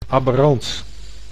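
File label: "aberant", Dutch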